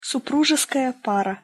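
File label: "supruzeskaja para", Russian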